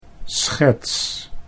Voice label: "schets", Dutch

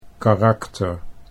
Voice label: "karakter", Dutch

